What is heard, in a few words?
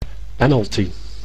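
"penalty", Dutch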